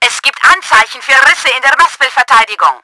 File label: CRACKS, german; Battle Engine Aquila